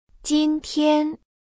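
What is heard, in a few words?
"jintian", Chinese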